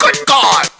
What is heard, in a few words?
"good god" fake; Voice; expletives